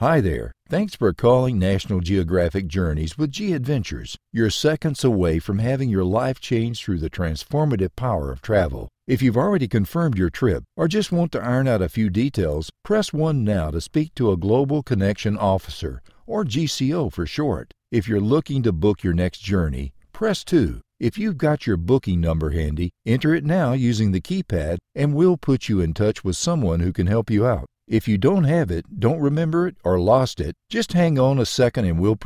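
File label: Telephony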